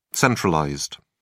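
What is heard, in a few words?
"centralized", British English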